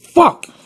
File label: "fuck", expletives